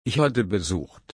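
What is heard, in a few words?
"besuchen", German, slow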